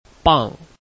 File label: "bang4", Chinese